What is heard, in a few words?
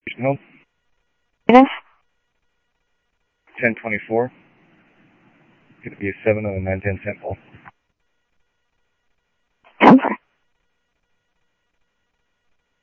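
Sheriff East County, scanner audio trunk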